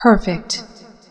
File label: "perfect", female; feedback